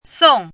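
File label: "song4", Mandarin pinyin syllable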